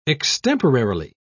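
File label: "extemporarily", British English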